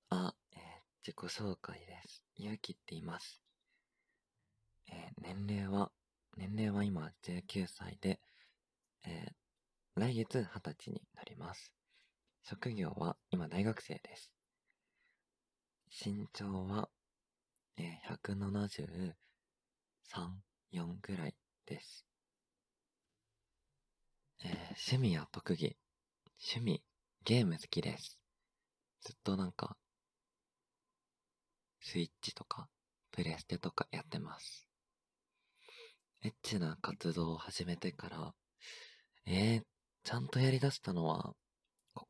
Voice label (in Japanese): 自己紹介, ASMR; 実演生音